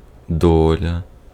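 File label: Ukrainian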